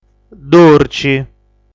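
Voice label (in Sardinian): "Durci", Sardinian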